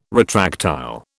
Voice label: "retractile", British